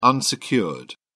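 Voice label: "unsecured", British English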